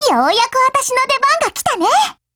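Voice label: ATTACK, Japanese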